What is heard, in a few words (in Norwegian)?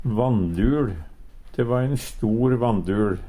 "vanndul", Numedalsmål; De va ein stor vandul.; Substantiv hankjønn